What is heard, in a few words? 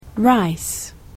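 English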